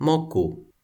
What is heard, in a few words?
"moku", Toki Pona